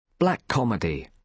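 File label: "black comedy", British English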